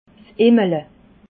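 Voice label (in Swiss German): "immele", Alsatian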